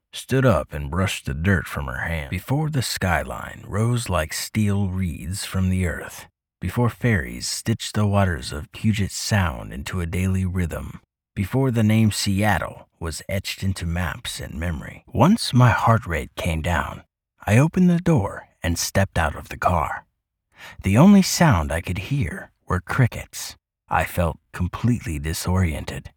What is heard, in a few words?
Audio Book, male voice over